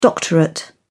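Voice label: "doctorate", British English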